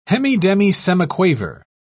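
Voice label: "hemidemisemiquaver", British English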